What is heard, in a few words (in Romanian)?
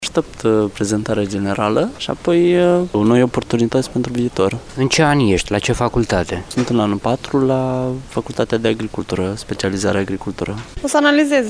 vox pop targ